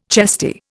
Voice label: "chesty", Indian